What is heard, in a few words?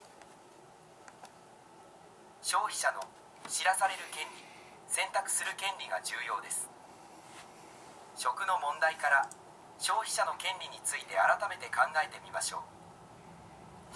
elearning; japan; business and human rights